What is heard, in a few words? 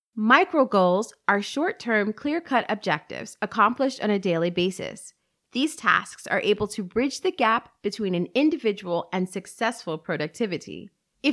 Explainer; Microgoals